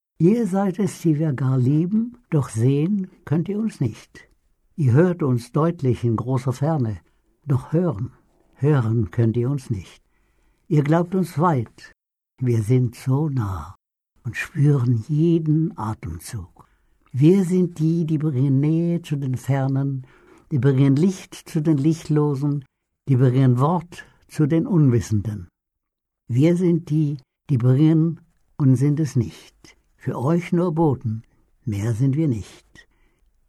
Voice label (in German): sachlich, maenner